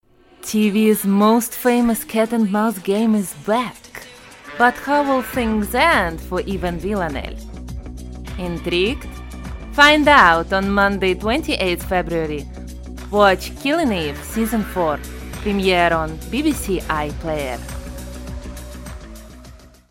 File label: English Russian Accent; Russian, Male, Studio, 30s-40s. Based in Thailand.